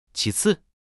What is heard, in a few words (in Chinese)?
"其次", Mandarin